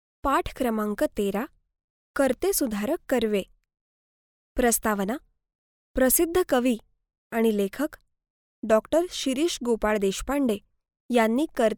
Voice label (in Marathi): Marathi Female